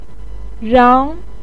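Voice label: "rang", Chinese pinyin, second tone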